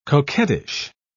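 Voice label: "coquettish", British English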